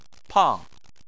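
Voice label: "pang" fourth tone, Chinese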